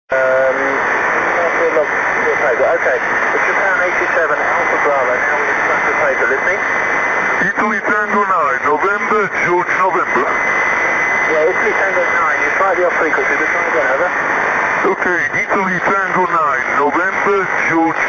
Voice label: 6m ssb

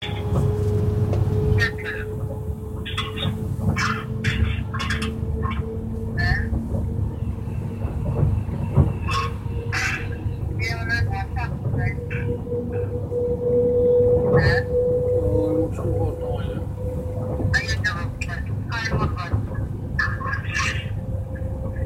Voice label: mobile phone call

